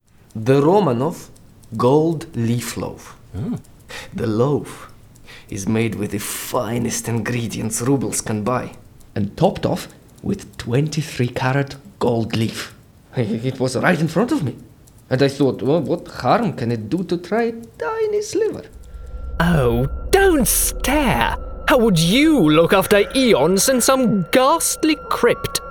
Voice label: Character English

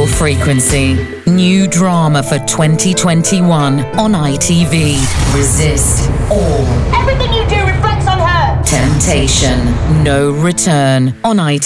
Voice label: TV Radio Promo Showreel

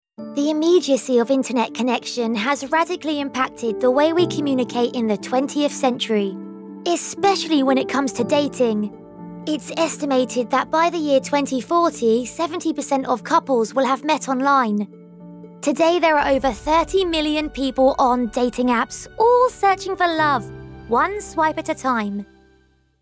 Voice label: Documentary

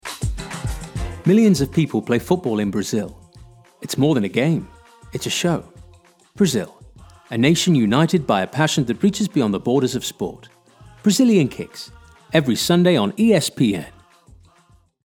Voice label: Comercial English Brazil

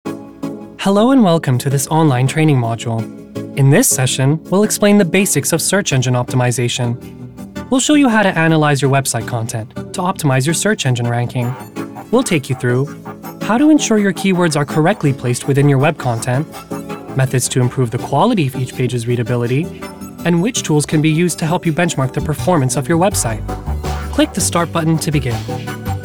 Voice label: Corporate

